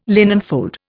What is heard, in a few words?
"linenfold", Indian